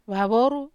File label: "vavoru", Wamesa